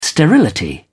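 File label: "sterility", British English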